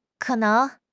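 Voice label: "ke3 neng2", Chinese; HSK; HSK2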